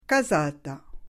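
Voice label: "casata", Corsican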